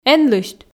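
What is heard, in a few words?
эн лысьт, Komi, female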